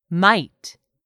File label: "might", English; 3000 words